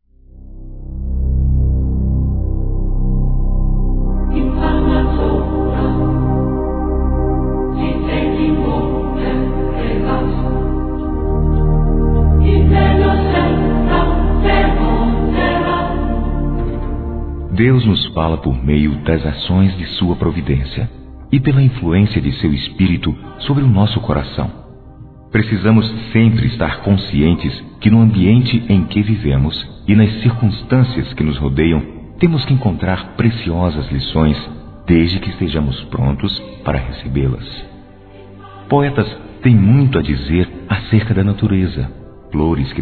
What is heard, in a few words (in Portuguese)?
Conforto Apoio Masculino; Telemensagens; Otimismo